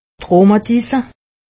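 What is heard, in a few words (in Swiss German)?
"rhumatisse", Alsatian; medecine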